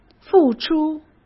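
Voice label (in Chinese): "付出", Mandarin Chinese; chinese flashcards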